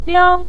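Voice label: "liang", Mandarin Chinese, neutral tone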